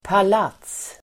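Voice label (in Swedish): "palats", Swedish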